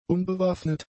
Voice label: "unbewaffnet", German; adjectives

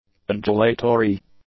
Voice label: "undulatory", English; adjectives

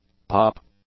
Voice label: "pup", English; nouns

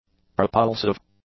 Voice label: "propulsive", english; adjectives

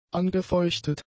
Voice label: "angefeuchtet", German; adjectives

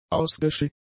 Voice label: "ausgeschifft", German; adjectives

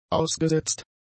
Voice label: "ausgesetzt", German; adjectives

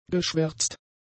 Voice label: "geschwärzt", German; adjectives

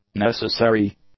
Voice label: "necessary", english; adjectives

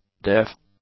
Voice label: "deaf", english; adjectives

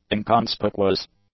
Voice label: "inconspicuous", English; adjectives

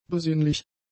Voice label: "besinnlich", german; adjectives

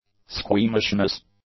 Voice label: "squeamishness", english; nouns

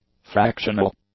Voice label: "fractional", English; adjectives

